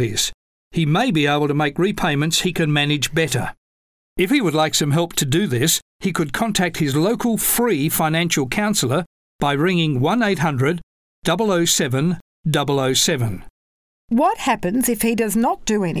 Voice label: debt english

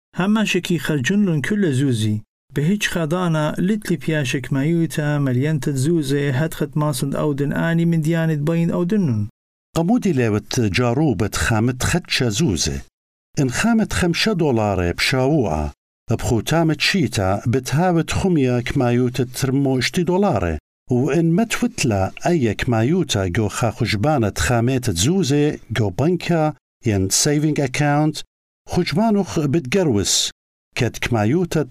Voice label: saving money assyrian

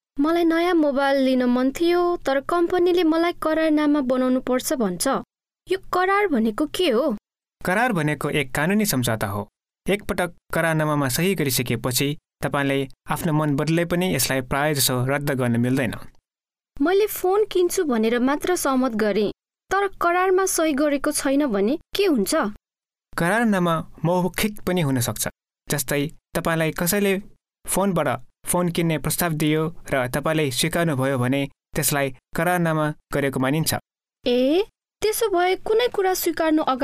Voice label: contracts nepalese

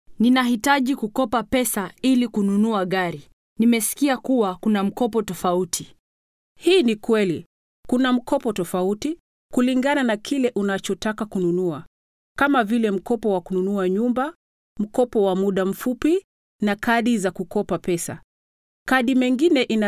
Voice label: types of credit, swahili

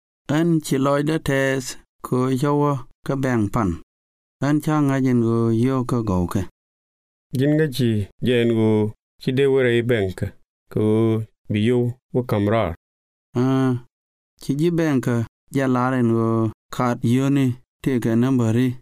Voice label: banking nuer

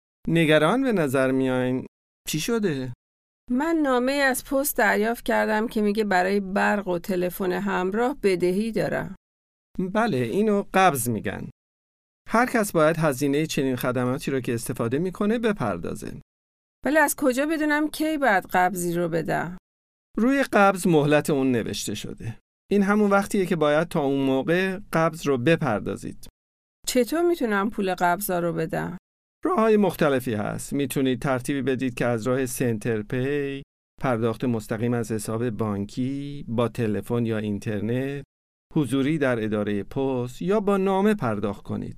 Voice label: paying bills farsi

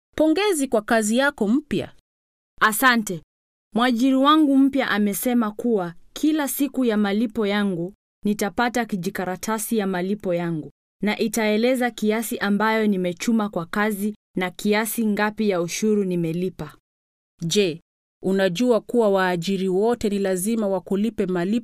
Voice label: swahili; working in australia

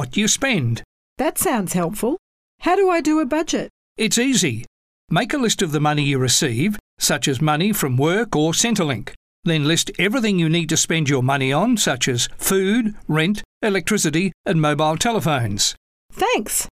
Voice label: household budgets english

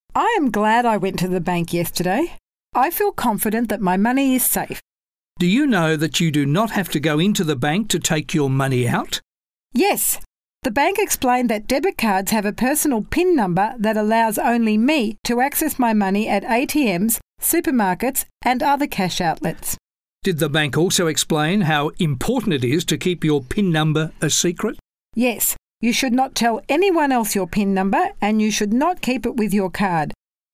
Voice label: banking english

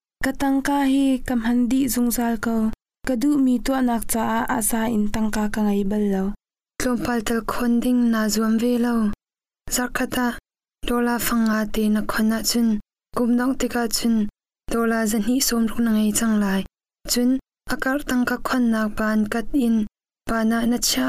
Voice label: saving money chin

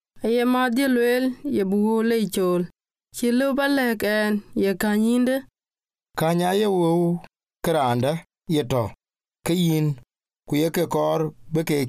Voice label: debt dinka

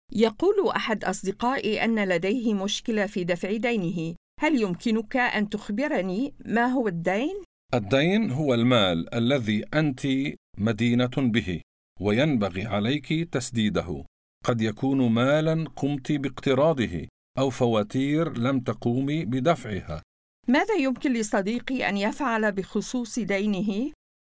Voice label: debt arabic

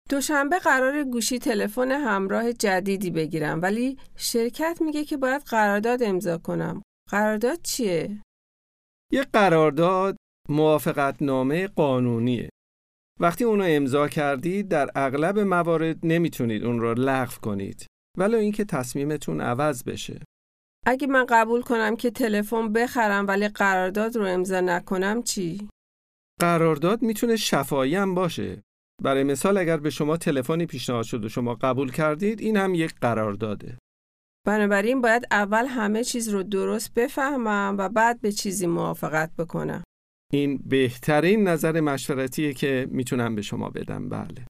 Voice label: contracts farsi

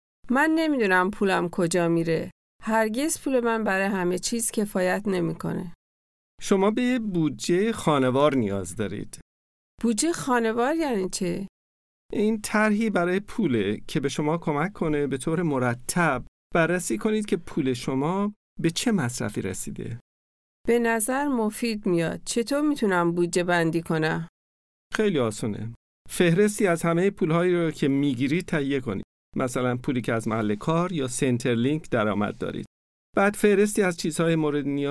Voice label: household budgets farsi

